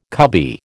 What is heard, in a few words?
"cubby", American